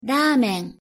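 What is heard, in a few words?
pronunciation "ramen", Japanese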